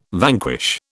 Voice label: "vanquish", British